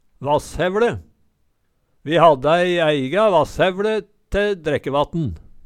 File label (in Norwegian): vasshevLe, Numedalsmål